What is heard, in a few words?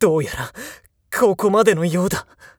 jakigan down, voice